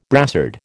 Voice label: "brassard", American

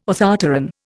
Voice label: "orthopteran", Indian

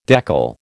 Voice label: "deckle", American

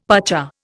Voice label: "pacha", Indian; Dictionary

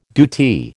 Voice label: "DoT", American

